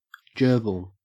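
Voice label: "gerbil", Australian English; pronunciations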